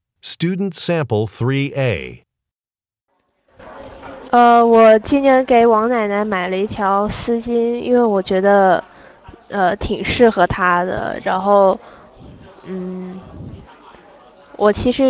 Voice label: chinese conversation

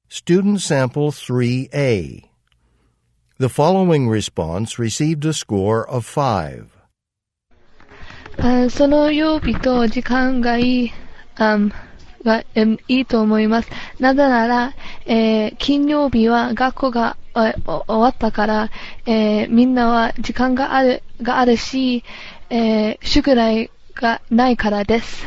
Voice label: japanese convo

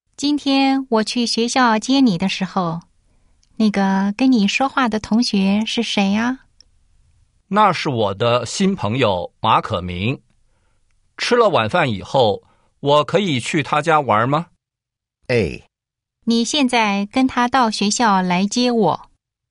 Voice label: chinese listen

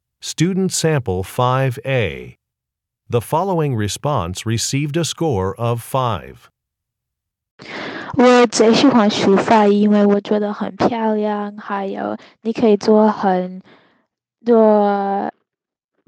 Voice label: chinese conversation; Conversation 5 Sample A

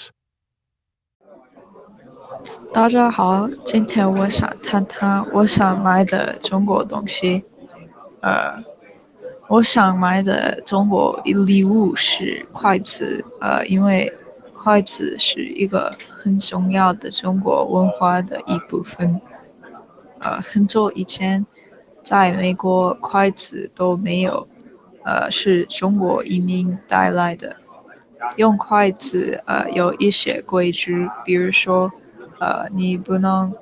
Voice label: chinese cultural presentation; Presentational Speaking Samples

